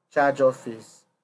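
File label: "chargeofficee", Nigerian Pidgin, male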